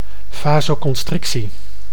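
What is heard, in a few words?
"vasoconstrictie", Dutch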